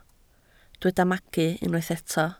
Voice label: "magu", Welsh